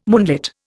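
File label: "moonlit", Indian English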